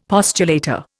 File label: "postulator", Indian; Dictionary